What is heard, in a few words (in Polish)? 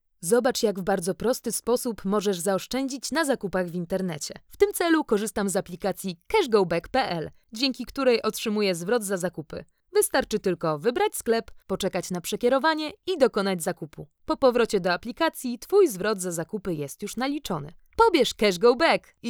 Reklama Glos